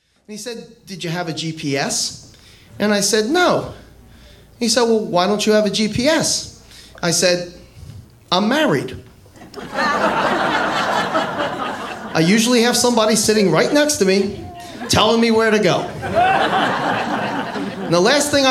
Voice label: comedy, Impressionist